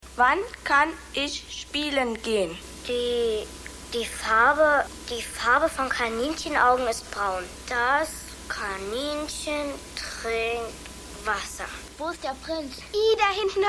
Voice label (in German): Maedchen Theaterkinder